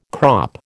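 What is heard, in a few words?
"crop", American English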